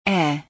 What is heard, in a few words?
The letter A in German